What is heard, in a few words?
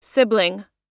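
"sugar cane", us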